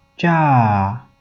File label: "cia", Wu Chinese; romanization